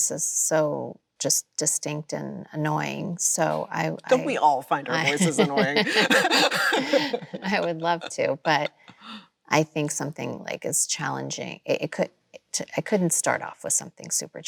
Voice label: ACCENT; KIM KARDASHIAN; CHLOE SEVIGNY